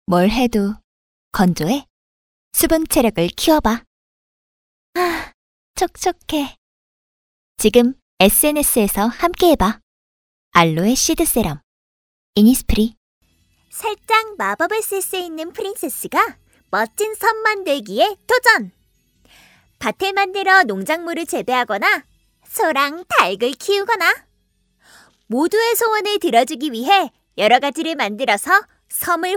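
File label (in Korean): 여성 (Female) 광고 나레이션 ARS 캐릭터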